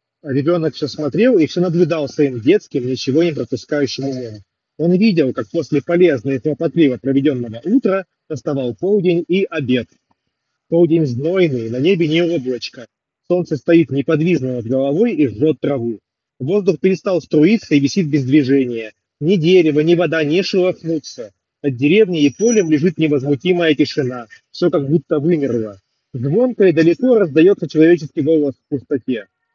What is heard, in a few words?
Mic noise; sony wf-1000xm6